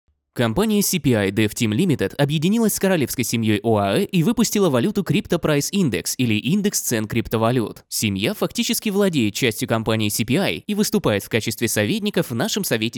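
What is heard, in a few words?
male russian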